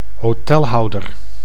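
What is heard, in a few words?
"hotelhouder", Dutch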